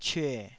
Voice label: "cyue3", Taiwanese syllable